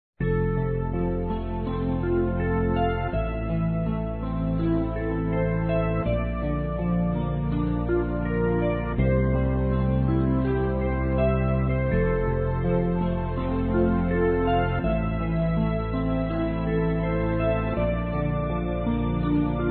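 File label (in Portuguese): Namorados pra Namoro Que Nao Deu Certo, female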